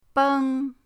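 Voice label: "beng", Mandarin pinyin, first tone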